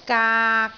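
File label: "gaak3", Cantonese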